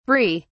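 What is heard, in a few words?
"bree", British English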